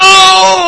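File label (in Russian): мужской голос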